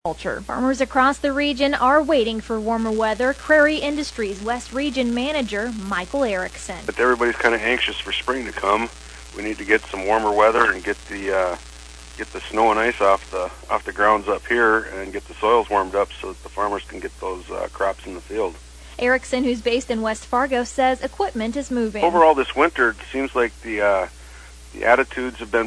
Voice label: air check